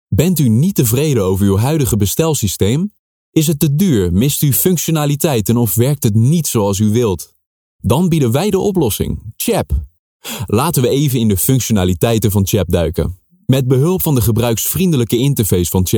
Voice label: voice over